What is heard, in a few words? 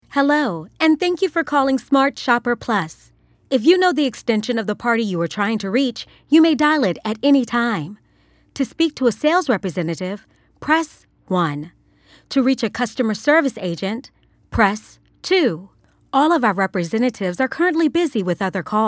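answering machine voice over, female voice over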